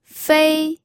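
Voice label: "fei", Mandarin Chinese, first tone; pinyin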